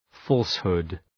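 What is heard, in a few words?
"falsehood", English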